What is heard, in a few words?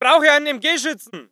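voice german infantry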